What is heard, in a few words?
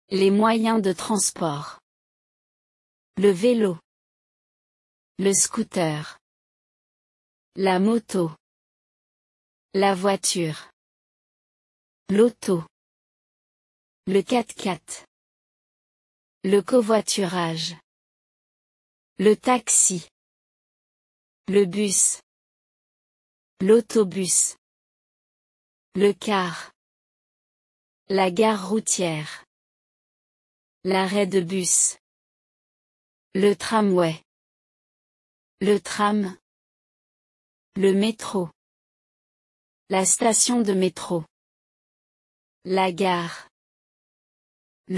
learn french transportation